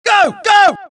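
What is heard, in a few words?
suppress, dialogue, Allies; mohaa; Mission 1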